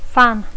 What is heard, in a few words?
"fan", English